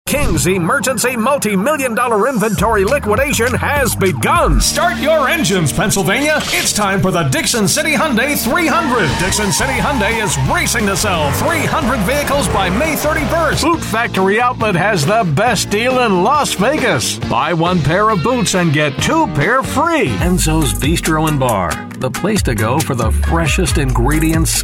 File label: Commercial, male